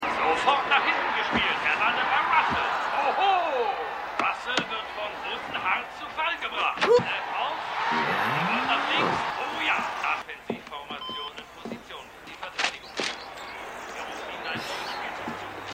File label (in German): Sportkommentator; AusgerechnetAlaska